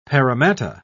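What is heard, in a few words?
"paramatta", British English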